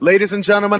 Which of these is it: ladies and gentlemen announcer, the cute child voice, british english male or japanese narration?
ladies and gentlemen announcer